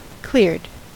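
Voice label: "cleared", US English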